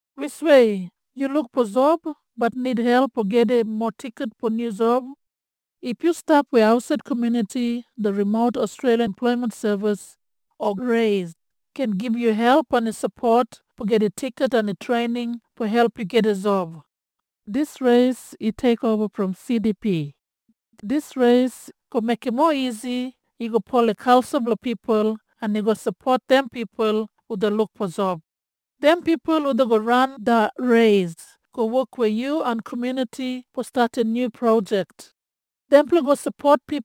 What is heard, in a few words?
Audio Message Yumplatok; RAES